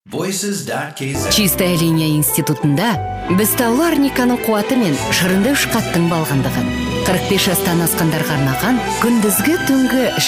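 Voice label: Kazakh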